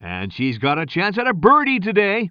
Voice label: commentator